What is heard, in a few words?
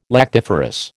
"lactiferous", American